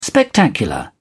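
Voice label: "spectacular", English, British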